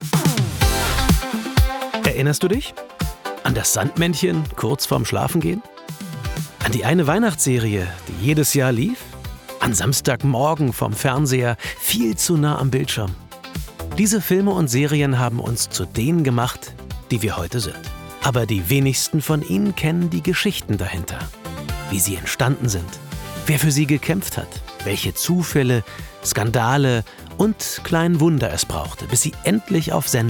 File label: trailer; das ist fernsehkult